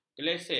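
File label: "kelesek", Malay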